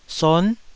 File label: "son", Taiwanese